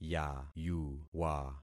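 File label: "yayuwa", concatenated syllables; Affixing experiment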